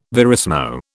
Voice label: "verismo", British